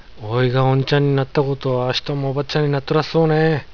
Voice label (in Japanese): おいがおんちゃんになったごーと、あしとんもおばっちゃんになっとらっそーねぇ。, 有田弁; 私がおじさんになった様に、あの人もおばさんになっているだろうなぁ。; おんちゃん／おばっちゃん; おじさん／おばさん